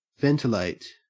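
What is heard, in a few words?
"ventilate", English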